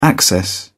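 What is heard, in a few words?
"access", English, British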